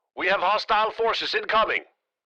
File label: Military radio voice; hostile forces incoming